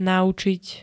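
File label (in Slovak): "naučiť", Slovak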